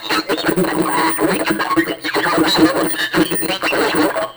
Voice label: Radio Voices; Sonic Foundry (Sony Creative Software); Loop Noir

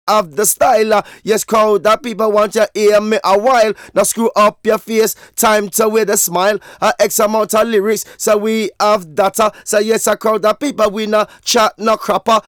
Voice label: Vocals; Jungle Frenzy 1

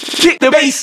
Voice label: Vocals

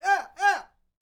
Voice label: MEXICO VOICE, MEXICAN; ILIO; Vocal Planet VOL-5

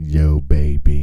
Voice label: "YO BABY", male vox; Hip Hop Nation

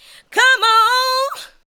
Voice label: SPOKEN VOX, ADLIPS

